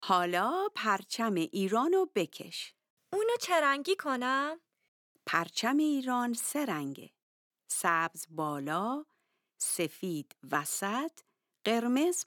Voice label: Dialogue lesson Farsi